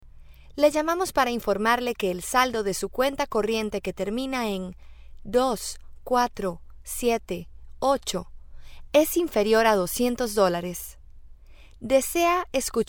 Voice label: spanish latin american ivr